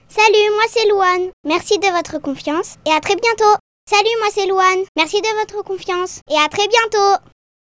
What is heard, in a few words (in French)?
voix enfant